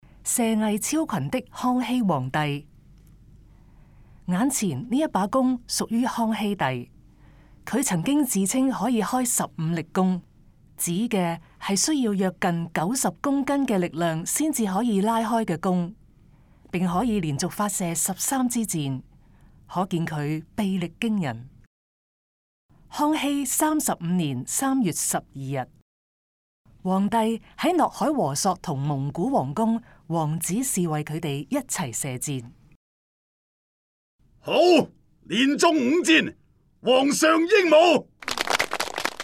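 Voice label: Cantonese, audio guide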